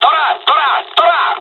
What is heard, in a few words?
japanese first wave, dialogs russian; War Thunder